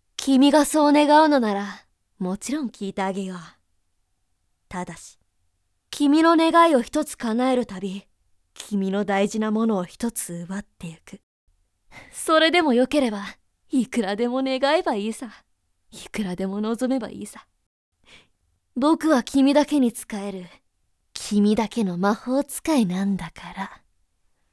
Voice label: boy